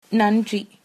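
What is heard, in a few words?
thanks, tamil, female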